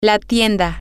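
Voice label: the store, Spanish, female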